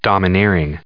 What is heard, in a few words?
"domineering", en us